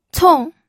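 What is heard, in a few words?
"cong", pinyin, fourth tone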